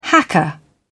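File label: "hacker", British English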